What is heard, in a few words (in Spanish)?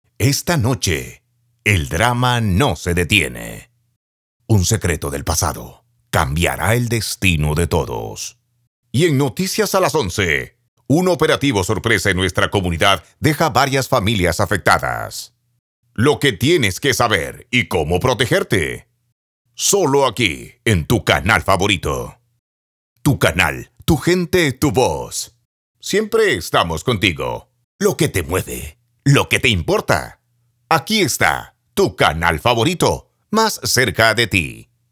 voz tv